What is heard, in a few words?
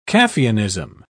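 "caffeinism", English, British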